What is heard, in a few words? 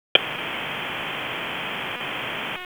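FIRE Operations, p25; FIRE OPS 1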